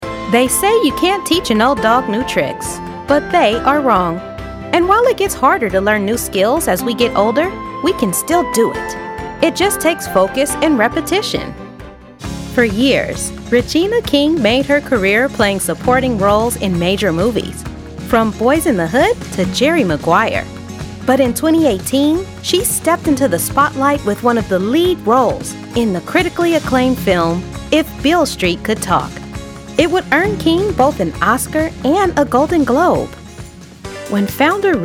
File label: Narration Demo